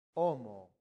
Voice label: "homo", latin; santillana